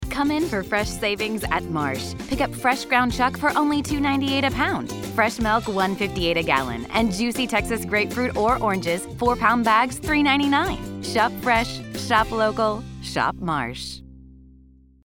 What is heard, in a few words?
radio